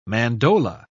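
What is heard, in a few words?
"mandola", British English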